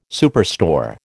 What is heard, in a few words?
"superstore", American